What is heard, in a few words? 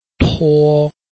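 "tuo1", Mandarin Chinese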